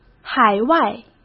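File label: "海外", Mandarin Chinese; chinese flashcards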